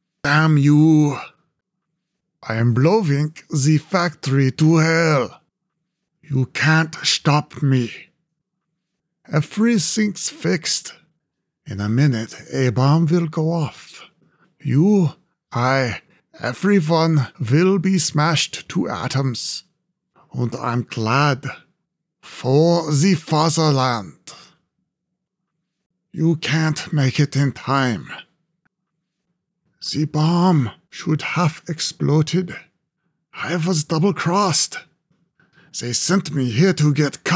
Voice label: Male - German - General - Over the Top - Some Post Effects Added; "The Whispering Spheres"